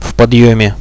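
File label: in the ascent, Russian, radio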